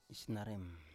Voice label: "isnarem", Biak